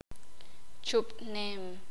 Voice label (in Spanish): "escupir", Sakapulteko